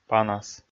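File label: "панас", Komi, male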